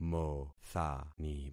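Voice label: "mothani", concatenated syllables; Affixing experiment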